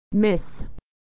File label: "miss", pronunciation